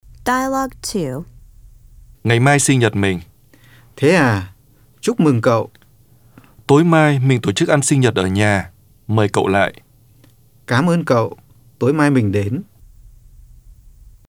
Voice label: Dialog